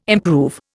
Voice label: "improve", Indian

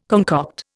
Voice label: "concoct", Indian

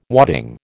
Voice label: "wadding", American

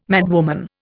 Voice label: "madwoman", Indian

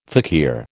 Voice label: "fakir", American

